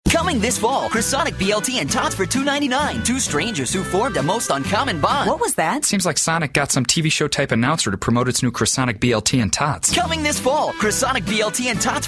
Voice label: Commercial